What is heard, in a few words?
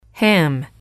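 "ham", English, female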